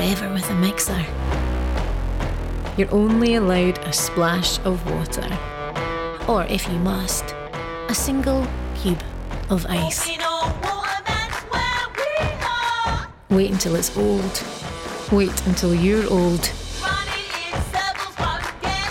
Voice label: Commercial Whiskey; Haig Club